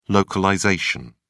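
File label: "localisation", English, British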